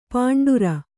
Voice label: "ಪಾಂಡುರ", Kannada; pāṇḍura; the white colour.